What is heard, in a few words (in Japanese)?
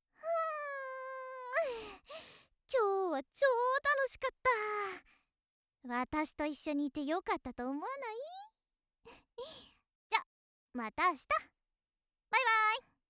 元気JK またね